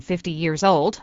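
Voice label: "fifty years olD", American English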